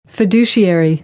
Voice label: "fiduciary", American; AmericanBritish

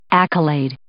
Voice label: "accolade", English

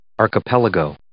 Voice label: "archipelago", English

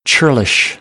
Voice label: "churlish", American English; ɝː - ɜː

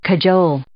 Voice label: "cajole", English

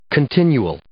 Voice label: "continual", English

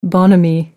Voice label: "bonhomie", American English

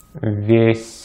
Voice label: "весь", Russian; Consonantes en ruso; 俄语区分硬（无腭或普通）和软（腭）辅音。; easypronunciation

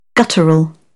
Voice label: "guttural", British English; ɚ - əɹ

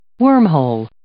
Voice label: "wormhole", English

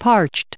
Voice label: "parched", English

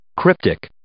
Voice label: "cryptic", English

